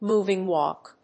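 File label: "moving walk", English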